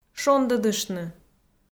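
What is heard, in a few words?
"шондӧдыштны", Komi, female; kpv audio dict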